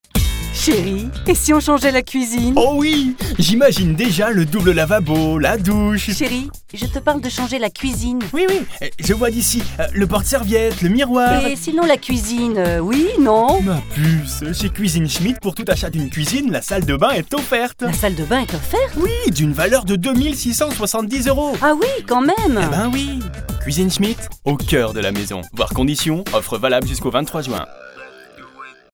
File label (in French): Ton enjoué en duo berceau de fin en solo